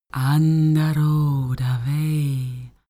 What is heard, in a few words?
female talk elfish